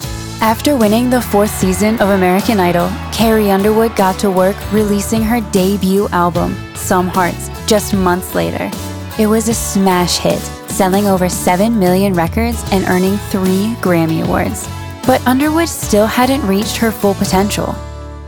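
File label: Female voice actor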